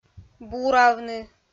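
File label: "буравны", Komi, female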